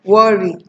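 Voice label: "worry", Nigerian Pidgin, female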